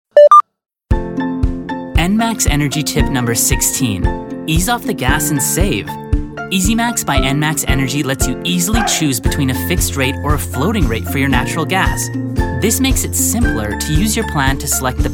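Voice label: English Canadian M Commercial, accent of canada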